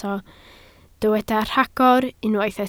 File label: "rhagor", Welsh